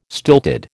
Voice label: "stilted", American

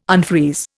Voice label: "unfreeze", Indian

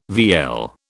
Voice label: "vielle", British

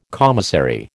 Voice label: "commissary", American

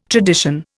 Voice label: "tradition", Indian

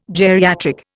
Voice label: "geriatric", Indian

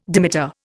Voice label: "dimeter", Indian

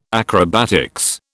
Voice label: "acrobatics", British

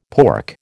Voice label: "pork", American

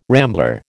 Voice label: "rambler", American

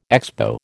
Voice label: "Expo", American

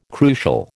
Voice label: "crucial", American

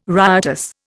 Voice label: "riotous", Indian

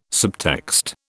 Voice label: "subtext", British

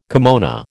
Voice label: "kimono", American

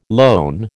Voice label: "lone", American

